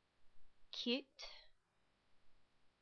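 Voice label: "cute", English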